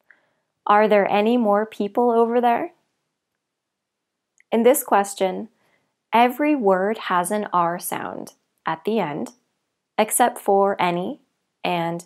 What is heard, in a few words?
r american english